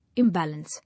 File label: "Imbalance", English